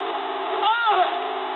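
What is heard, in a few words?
damaged pilot mood medium, speech English; War Thunder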